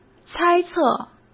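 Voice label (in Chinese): "猜测" Mandarin Chinese; hsk; chinese-flashcards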